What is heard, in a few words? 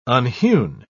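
"unhewn", British English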